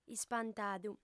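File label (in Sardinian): "ispantadu", Sardinian, female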